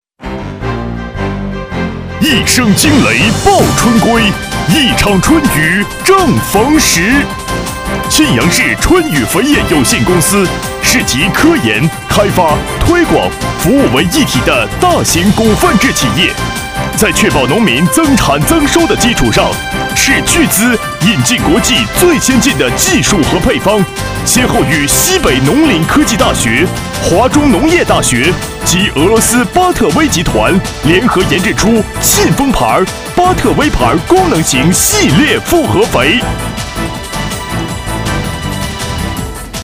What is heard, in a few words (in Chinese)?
男 广告 复合肥电购